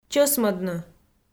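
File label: "чӧсмӧдны", Komi, female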